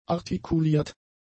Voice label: "artikuliert", German; adjectives

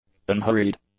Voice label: "unhurried", english; adjectives

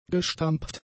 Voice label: "gestampft", German; adjectives

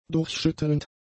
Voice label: "durchschüttelnd", german; adjectives

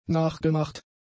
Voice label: "nachgemacht", German; adjectives

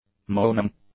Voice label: "moaning", English; nouns

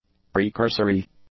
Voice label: "precursory", english; adjectives

